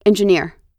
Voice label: "engineer", us